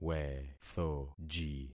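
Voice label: "wethoji", concatenated syllables; Affixing experiment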